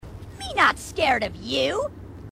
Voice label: grunt; h2dialogue; grunt crazy

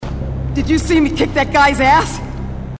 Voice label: female marine

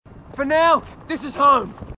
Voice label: marine, aussie; h2dialogue

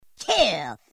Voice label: kill, grunts

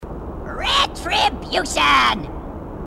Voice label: grunt crazy; h2dialogue

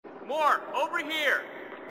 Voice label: more over here, marine; h2dialogue; marine cross

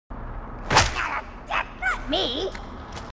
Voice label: grunt; h2dialogue; grunt crazy

